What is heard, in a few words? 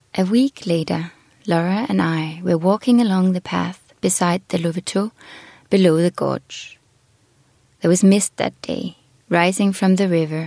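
Dan Eng, Danish English; Danish, Scandinavian, Male, Home Studio, 20s-30s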